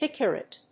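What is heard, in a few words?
"Hippurate", English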